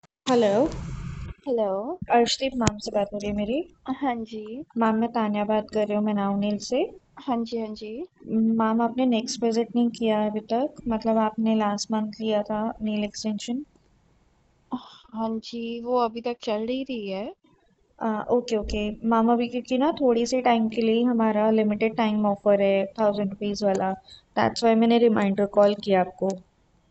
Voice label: Call recording